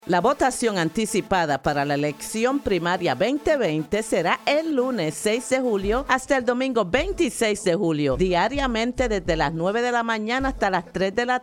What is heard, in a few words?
Early Voting Advertisement Spanish; 2020 Primary Election